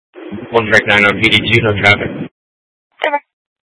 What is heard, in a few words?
Dispatch; Scottsdale